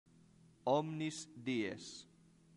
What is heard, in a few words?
"omnis dies", latin; santillana